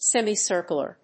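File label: "semi-circularly", English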